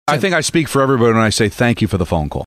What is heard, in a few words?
funny